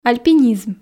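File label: "альпинизм", Komi, female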